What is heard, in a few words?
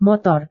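"motor", Indonesian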